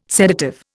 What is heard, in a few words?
"sedative", Indian